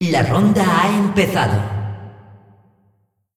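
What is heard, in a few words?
announcer female tournaments round start; clash; es es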